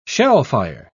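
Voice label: "shellfire", British English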